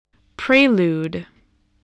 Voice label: "prelude", voice, spelling